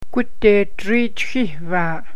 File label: "rainbow", Gwich'in; Gudeedrii chihvyáa'